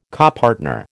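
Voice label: "copartner", American English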